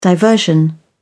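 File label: "diversion", British English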